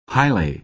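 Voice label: "highly", American English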